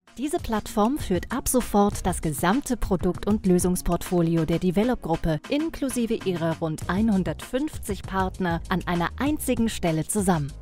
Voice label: corporate store image; Berlin; Muttersprache Deutsch

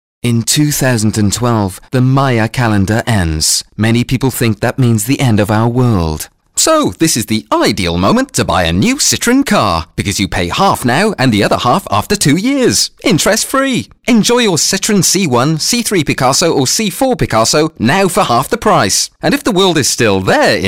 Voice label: commercial

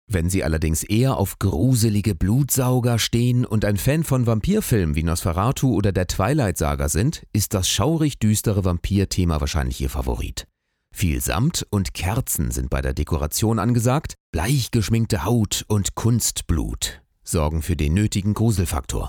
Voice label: stimmprobe voiceover

